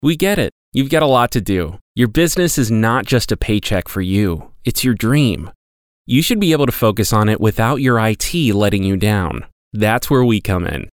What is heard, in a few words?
General Friendly Business Explainer